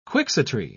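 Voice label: "quixotry", English, uk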